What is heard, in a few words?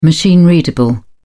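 "machine readable", British English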